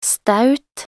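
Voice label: "staut", Norwegian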